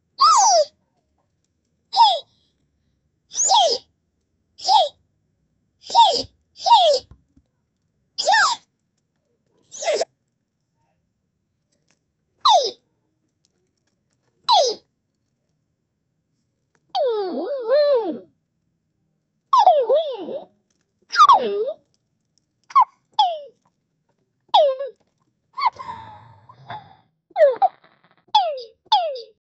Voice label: cartoon game action style, character voices